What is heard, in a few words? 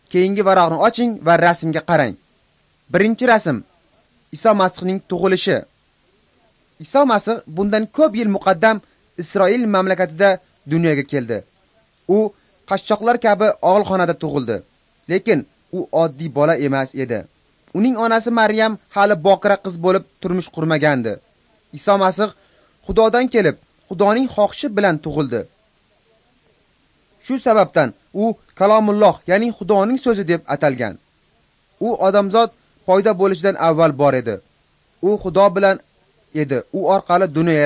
uzbek northern